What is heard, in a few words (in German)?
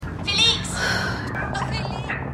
Frau; Frau Bei Felix; On The Rocks